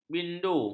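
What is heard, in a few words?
"bindu", Malay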